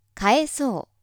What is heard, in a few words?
female